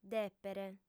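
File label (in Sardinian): "dèpere", female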